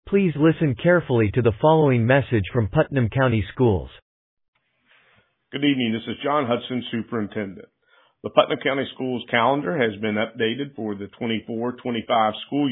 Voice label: Voicemail